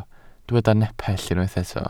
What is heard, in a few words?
"nepell", welsh